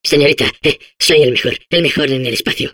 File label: Space core babble, es